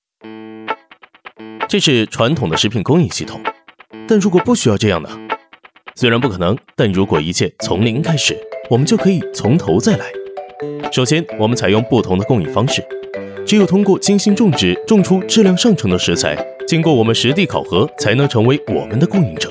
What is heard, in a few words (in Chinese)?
男 广告 轻快、阳光